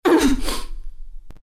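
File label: pain, chinese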